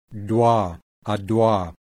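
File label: labialized voiced alveolar plosive, IPA sounds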